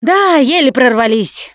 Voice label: transition close, russian, Survivor Voice Producer